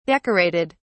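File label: "decorated", British English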